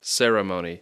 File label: "ceremony", English, C soft